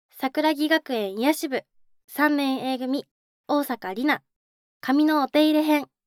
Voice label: タイトルコール, ASMR

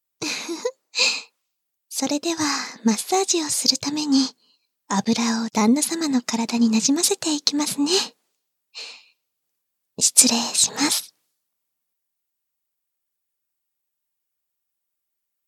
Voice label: 肩、腰マッサージ（簡易モノラル編集 効果音なし）

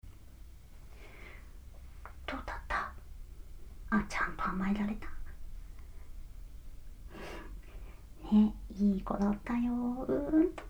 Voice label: ピロートーク

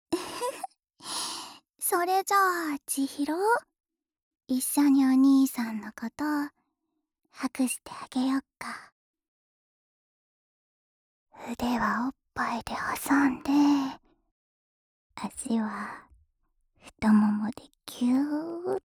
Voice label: 安眠誘導ボイス, ASMR; 千尋＆クリスVer

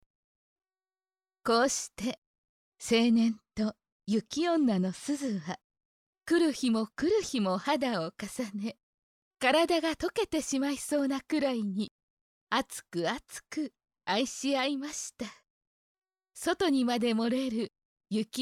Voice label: ナレーション; 雪女の雫～ねぶってすすって包み込んで、うんと気持ち良くして差し上げますわ♪～; 効果音あり

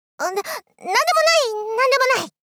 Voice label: なっなんでもない！なんでもない！少女（高校生）×中音域～高音域

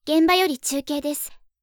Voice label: 現場より中継です, アナウンサー; ハイグレボイス集

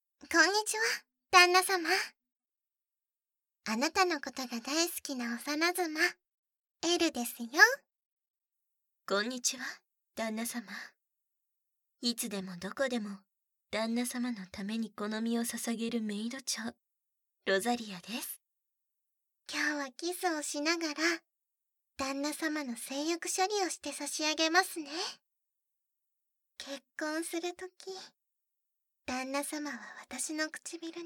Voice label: 口内舐めと、ディープキス耳舐め, ASMR; エルとロザリア; 手コキ; 効果音なし

